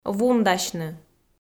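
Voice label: "вундасьны", Komi, female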